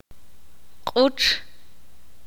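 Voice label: "musgo" Spanish, Ixil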